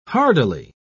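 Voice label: "hardily", British English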